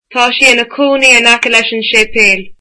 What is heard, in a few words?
"she lives near the church", Irish